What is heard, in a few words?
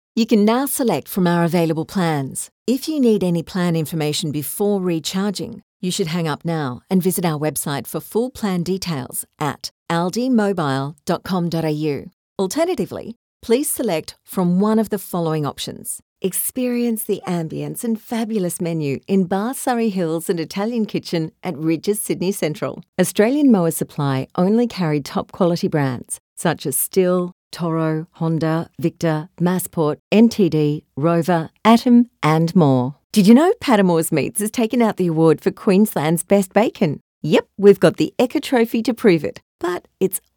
IVR OnHold